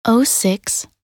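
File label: "06", English; minute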